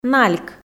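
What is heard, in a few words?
"нальк", Komi, female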